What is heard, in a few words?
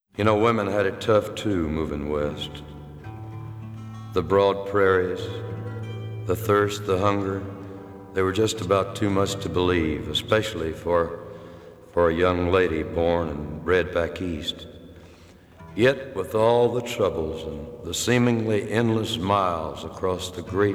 Narration